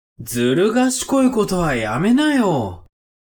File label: man